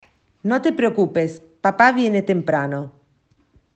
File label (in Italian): Spagnolo